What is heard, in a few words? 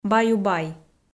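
"баю-бай", Komi, female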